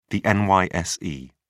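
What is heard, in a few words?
"nyse", British English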